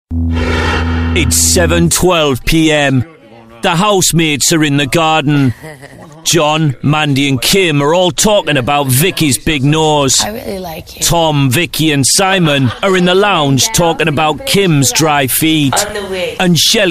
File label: big brother narrator voice style